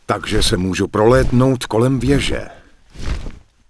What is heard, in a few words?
Human Male Pissed, Human Vocal Male; wowpreklad; czwow